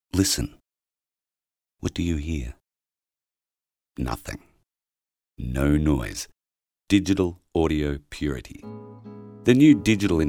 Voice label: Voice Over